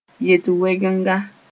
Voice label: "yet-wɛgenga", Mooré; irregular verb